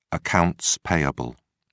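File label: "accounts payable", British English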